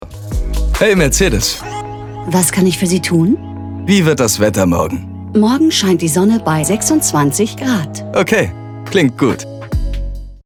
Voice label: werbung; mercedes

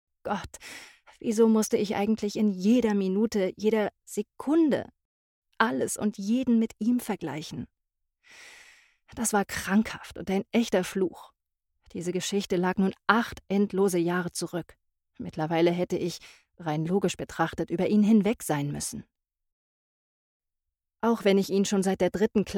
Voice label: Ausschnitt Hörbuch; immer wieder verfuhrung; Muttersprache Deutsch

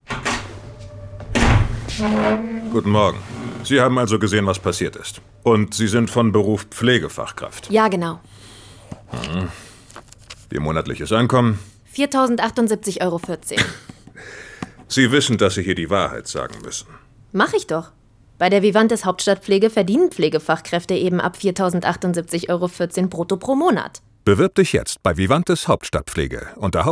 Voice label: werbung vernehmung; vivantes

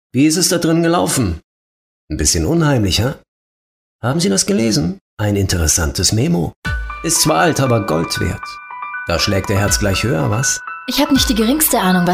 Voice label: hoerspiel krimi

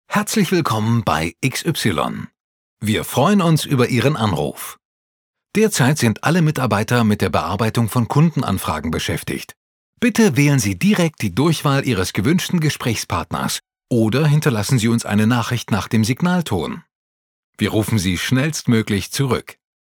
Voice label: telefonansage

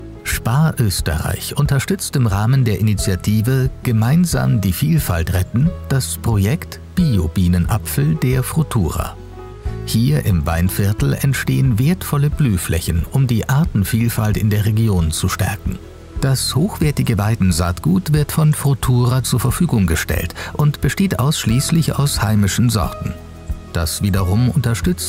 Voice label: doku werbung; Sprecher in Augsburg, München, Stuttgart, Ulm, Utting am Ammersee; Muttersprache Deutsch

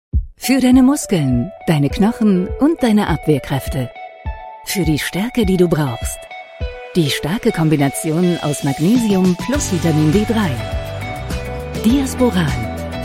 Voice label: tv spot; diasporal

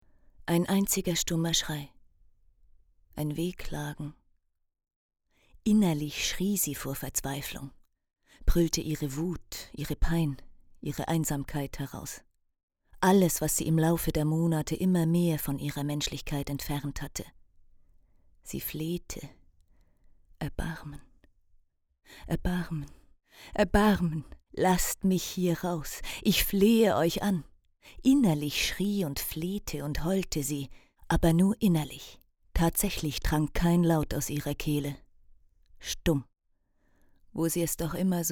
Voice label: erzaehlung totenlied; Muttersprache Deutsch, Deutsch/Schweizerdeutsch

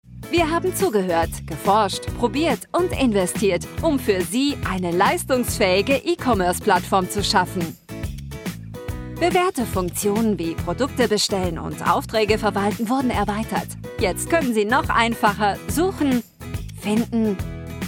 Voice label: erklaerfilm konzern frisch dynamisch; better e-commerce

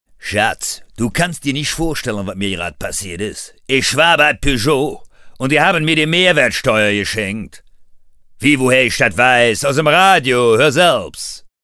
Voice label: rheinisch dialekt; Muttersprache Deutsch

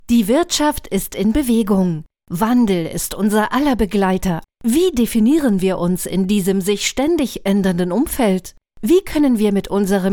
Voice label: off Doku Image

